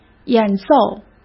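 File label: "演奏", Mandarin Chinese; hsk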